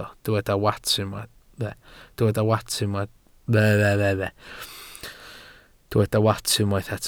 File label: "wats", Welsh